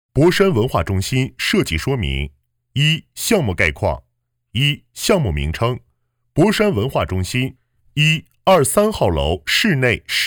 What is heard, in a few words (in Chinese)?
专题片工程说明